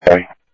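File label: Sheriff Lake Patrol, scanner audio trunk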